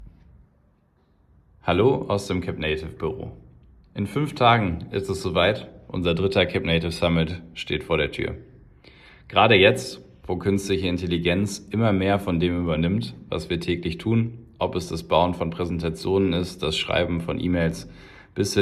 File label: voice message